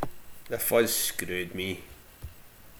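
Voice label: "fuzz", slang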